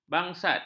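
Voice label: "bangsat", Malay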